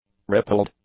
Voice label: "rippled", English; adjectives